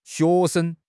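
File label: "xue2 sheng5", Chinese; HSK1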